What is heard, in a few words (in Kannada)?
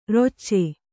"ರೊಚ್ಚೆ", Kannada